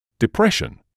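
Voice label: "depression", British English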